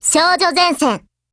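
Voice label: TITLE CALL, Japanese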